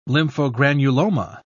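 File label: "lymphogranuloma", English, British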